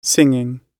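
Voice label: "singing", British English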